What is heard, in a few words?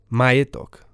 "majetok", slovencina